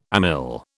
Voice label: "amyl", British

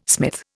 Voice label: "smith", Indian

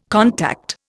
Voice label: "contact", Indian

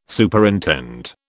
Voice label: "Superintend", British

